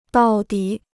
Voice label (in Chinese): "到底", Chinese